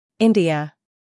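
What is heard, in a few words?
"India", American English; sounds-of-american-english; everyone-can-use-english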